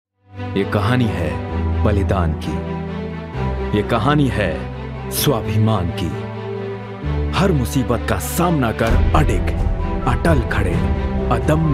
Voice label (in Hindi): Hindi; Mix Reel